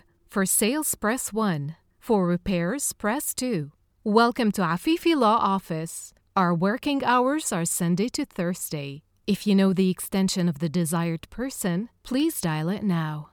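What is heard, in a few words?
Arabic, female, English IVR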